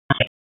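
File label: Police Spec Ops Tac, radio; wake; p25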